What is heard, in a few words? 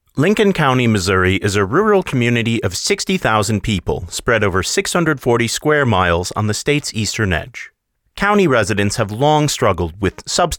Voiceover; Lincoln County